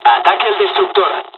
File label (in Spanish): dialogs spanish; War Thunder